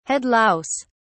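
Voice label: "head louse", British English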